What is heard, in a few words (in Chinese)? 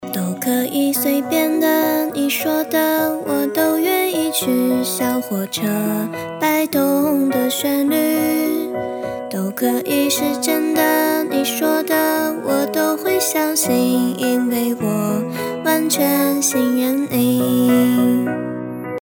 暖暖